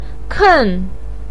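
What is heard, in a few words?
"ken" fourth tone, chinese, voice pinyin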